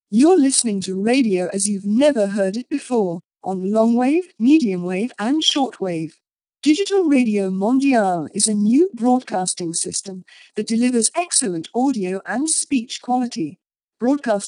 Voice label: speech HVXC SBR 3150bps; t-dab dvb-t drm; drm audiotest